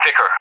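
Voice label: "Vikhr", English; Weapon; DCS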